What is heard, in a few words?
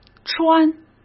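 "穿", Mandarin Chinese; hsk; chinese-flashcards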